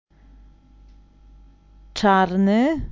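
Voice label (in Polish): "czarny", Polish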